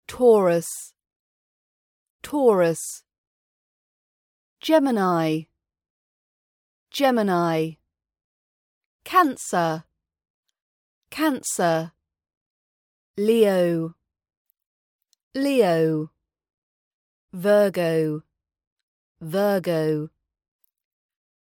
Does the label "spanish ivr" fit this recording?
No